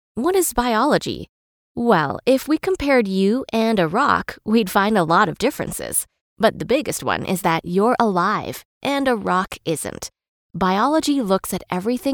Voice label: female English neutral North American accent e-learning; english canadian; devine voices